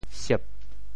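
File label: "siep4", Teochew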